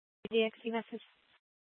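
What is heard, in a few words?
Police Department Dispatch, scanner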